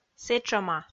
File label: "сэтшӧма", Komi